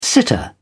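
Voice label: "sitter", British English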